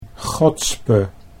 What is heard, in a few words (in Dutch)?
"gotspe", Dutch